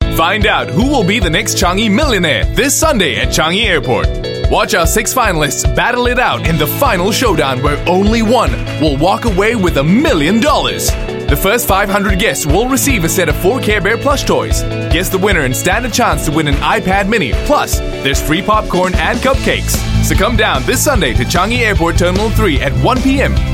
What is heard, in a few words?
Asian English; Changi Millionaire